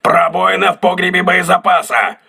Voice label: voice message ammunition storage damaged, crew ships russian